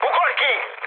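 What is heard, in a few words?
Hungarian bailout mood high, speech; War Thunder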